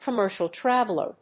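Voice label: "commercial traveler", English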